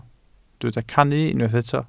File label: "cannu", Welsh